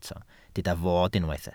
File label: "fod", Welsh